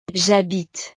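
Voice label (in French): "jabite", French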